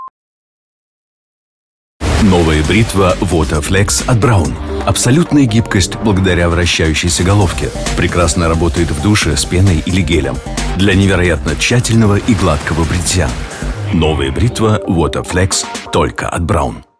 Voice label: ru braun waterflex